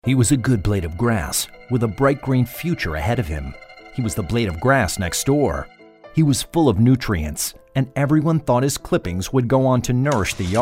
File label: Radio spot, Reducing Stormwater Pollution; ACEPD; Reducing Stormwater Pollution Documents